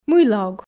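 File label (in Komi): мыйла ог, Komi, female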